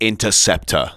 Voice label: "INTERCEPTOR", SPOKEN; Techno Trance Essentials